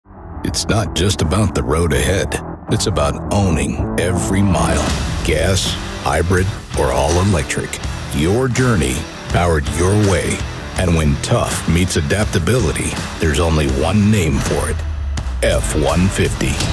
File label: Commercial